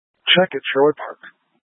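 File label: Incident Tactical, scanner audio recording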